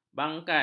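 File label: "bangkai", Malay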